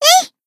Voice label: Battle Shout; Kokona